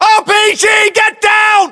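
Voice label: threat rpg, battlechatter, British; english; uk; localized english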